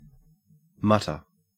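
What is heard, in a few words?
"mutter", Australian English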